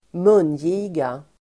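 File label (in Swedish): "mungiga", Swedish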